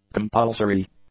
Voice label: "compulsory", English; adjectives

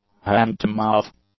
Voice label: "hand-to-mouth", English; adjectives

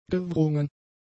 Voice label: "gewrungen", German; adjectives

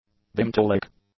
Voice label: "bimetallic", English; adjectives

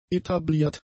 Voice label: "etabliert", German; adjectives

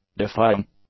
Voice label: "defying", english; adjectives

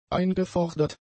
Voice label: "eingefordert", German; adjectives

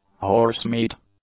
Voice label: "horse-meat", English; nouns

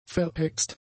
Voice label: "verhext", German; adjectives

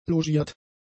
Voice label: "logiert", German; adjectives

